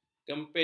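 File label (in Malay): "kempis", Malay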